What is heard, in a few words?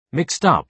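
"mixed up", British English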